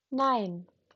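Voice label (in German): "nein", German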